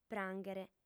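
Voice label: "prànghere", Sardinian, female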